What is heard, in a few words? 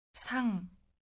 low traditional eating table, korean